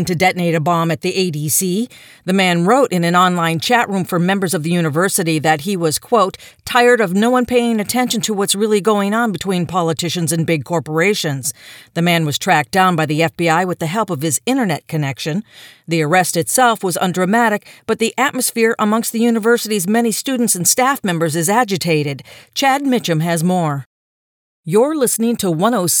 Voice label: News Girl

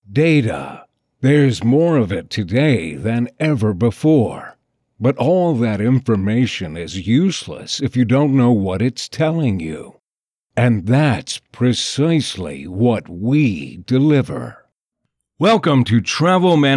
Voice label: EXPLAINER VIDEO

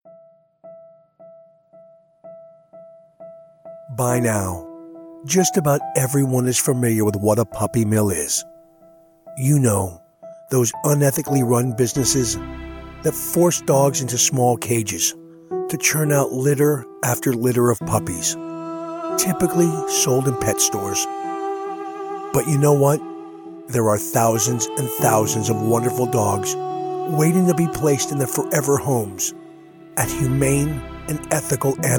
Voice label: PSA

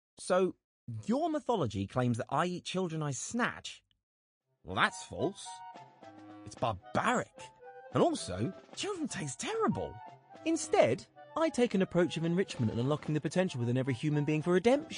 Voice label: Video Animation reel

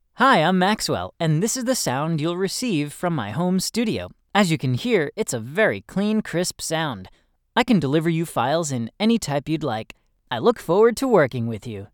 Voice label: Studio Sample

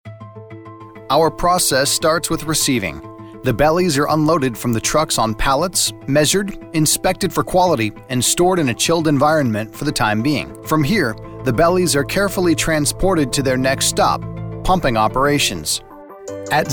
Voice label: eLearning

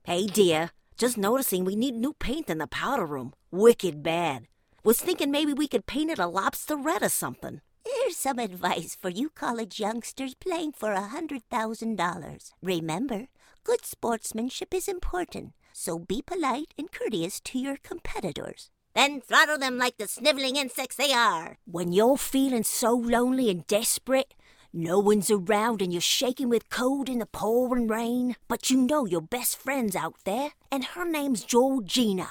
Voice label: impressions

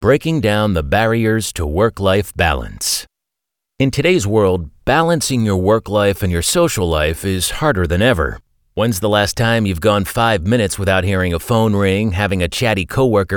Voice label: Audiobook Non Fiction

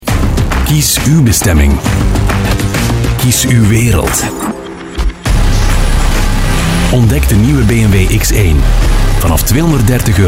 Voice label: TVC audio; bmw x1

